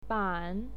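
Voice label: "ban", Mandarin Chinese, third tone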